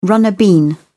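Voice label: "runner bean", British English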